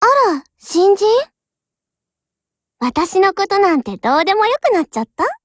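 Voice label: BUILD OVER Japanese; GF Alarm; UMP45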